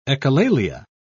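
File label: "echolalia", British English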